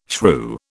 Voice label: "shrew", British

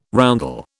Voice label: "roundel", British

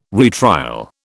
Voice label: "retrial", British

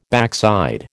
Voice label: "backside", American

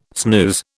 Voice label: "snooze", British

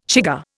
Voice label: "chigger", Indian

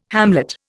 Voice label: "hamlet", Indian

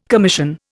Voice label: "commission", Indian

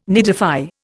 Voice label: "nidify", Indian

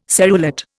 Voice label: "serrulate", Indian

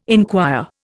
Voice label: "inquire", Indian

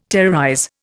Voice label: "terrorize", Indian

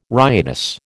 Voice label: "riotous", American

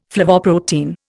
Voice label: "flavoprotein", Indian

